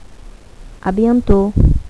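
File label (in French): "à bientôt", French; HASTA PRONTO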